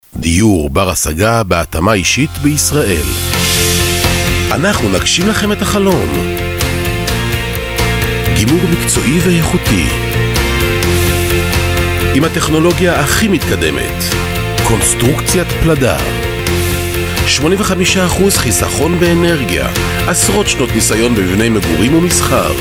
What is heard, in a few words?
Hebrew